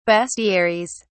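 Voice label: "bestiaries", British English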